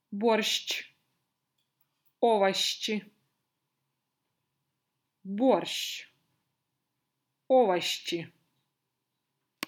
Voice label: Pietarin tyyliin, venäjän ässät lausuminen

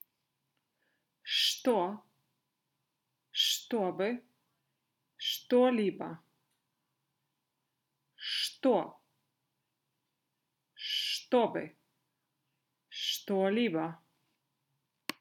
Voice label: шт, venäjän ässät lausuminen; Venäjän suhuäänteet

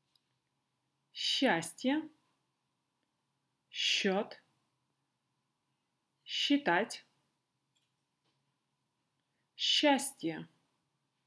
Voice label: шш, venäjän ässät lausuminen

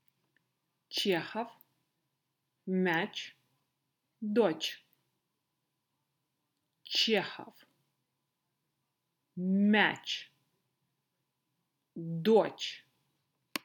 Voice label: Ч, venäjän ässät lausuminen

